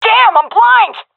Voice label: blinded, vo fem; agents; Source Model Packs; Counter Strike Model Pack; CS2